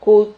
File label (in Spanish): "jud", Silabas